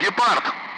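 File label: Speech, Russian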